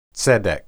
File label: "tsedek", Hebrew